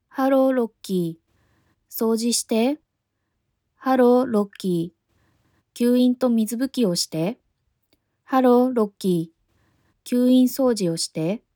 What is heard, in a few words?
slow pace sample